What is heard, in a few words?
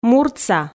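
"муртса", Komi, female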